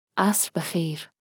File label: Farsi Good evening; Greetings